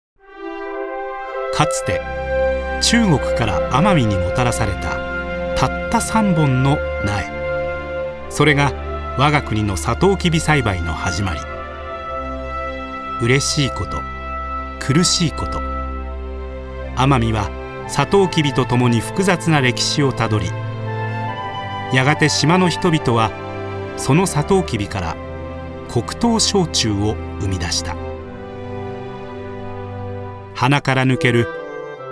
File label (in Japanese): 優しいノスタルジック風商品紹介